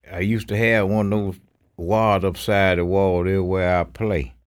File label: STORY, SPOKEN; Spectrasonics; Vocal Planet; Blues Soul